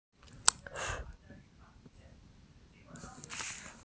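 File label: "shu ye", Mandarin Chinese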